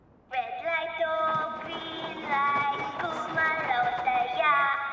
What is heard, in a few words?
red light green light tagalog